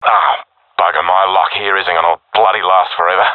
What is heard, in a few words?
scared; Counter Strike Model Pack; CS:GO